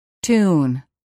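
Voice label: "tune", English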